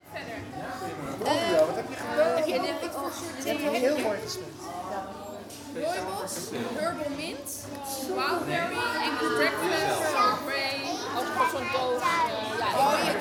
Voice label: Dutch People Talking During Guided Tour In A Castle